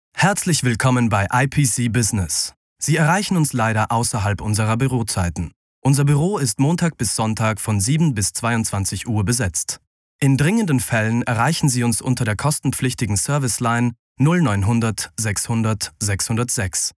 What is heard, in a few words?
Phoneline